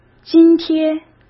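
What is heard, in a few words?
"津贴", Mandarin Chinese; hsk; chinese-flashcards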